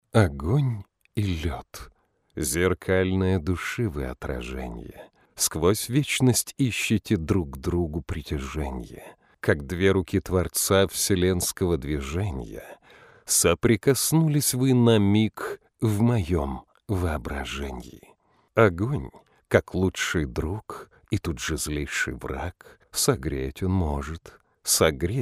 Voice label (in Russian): Neobrabotannyy golos